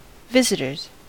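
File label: "visitors", US English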